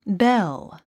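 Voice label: "belle", English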